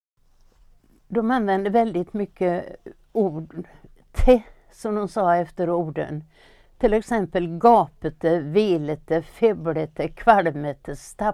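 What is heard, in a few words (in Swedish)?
Askim Bohuslän, dialekter; Folkminnen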